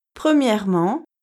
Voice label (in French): "premièrement", French